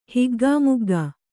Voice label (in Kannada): "ಹಿಗ್ಗಾಮುಗ್ಗಾ", Kannada